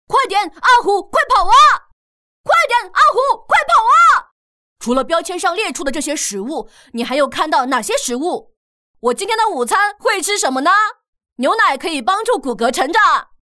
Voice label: 小小男子汉男童音台湾腔

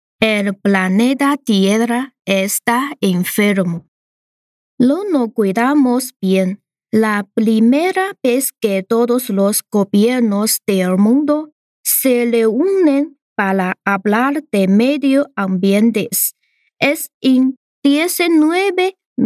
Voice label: 西班牙语声展

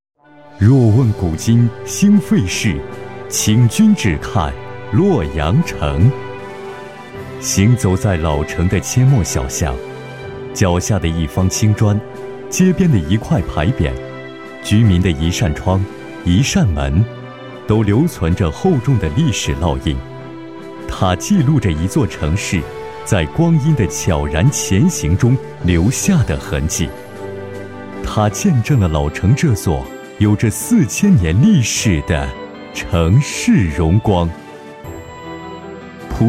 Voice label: 城市宣传 大气 恢弘; 洛阳

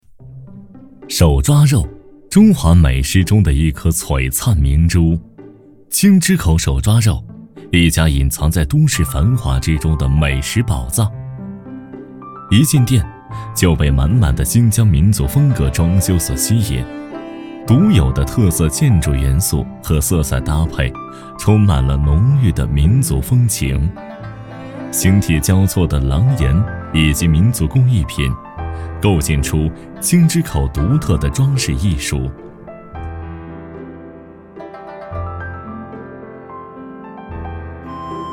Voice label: 舌尖风格娓娓道来美食宝藏男

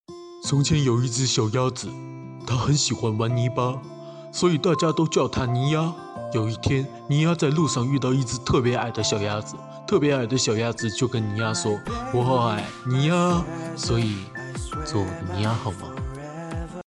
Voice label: 搞怪 搞笑 憨憨

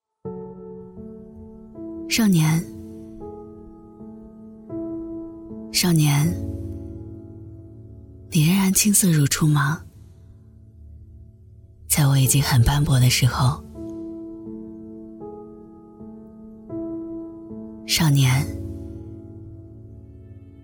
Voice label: 走心独白少年

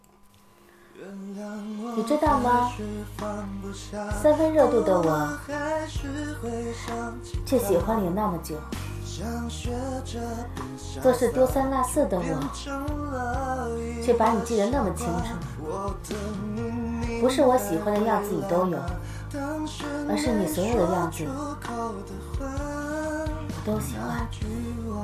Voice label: 配音

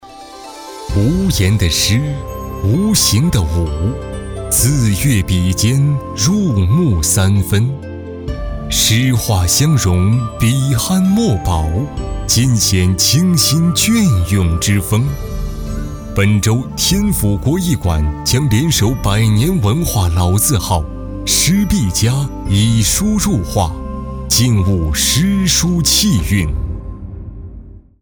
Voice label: 诗意悠远; 天府国艺馆

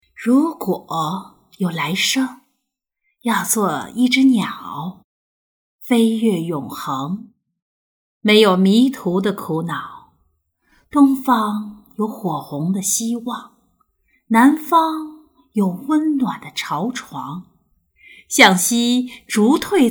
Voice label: 情感类音频

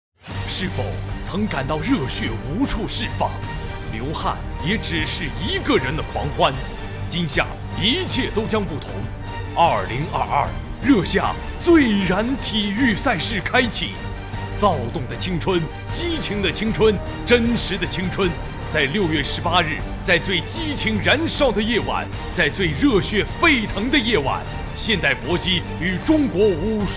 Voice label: 广告

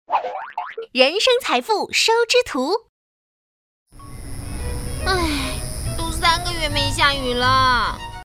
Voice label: 姐姐小姐姐

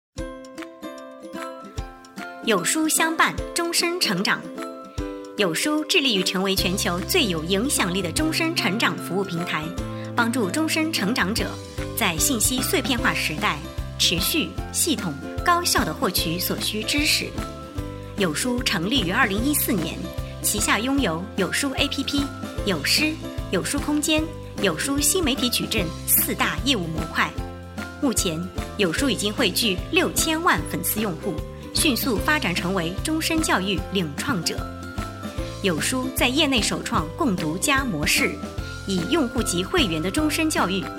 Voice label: 企业 宣传片

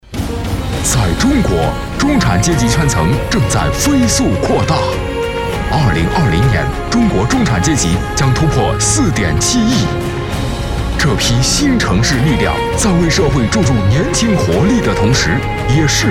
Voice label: 专题 大气有力; 中迪集团

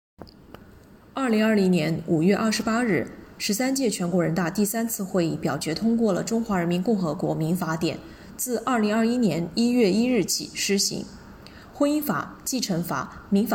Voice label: 温婉大气

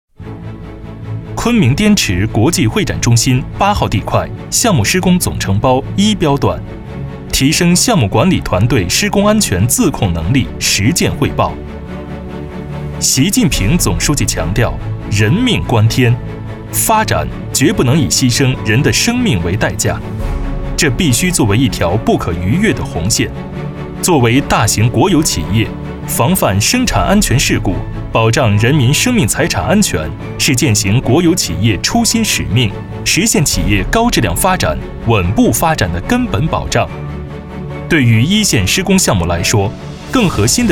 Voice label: 专题汇报类

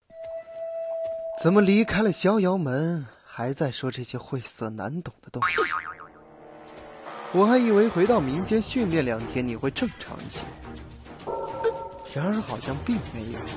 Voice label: 动漫男音

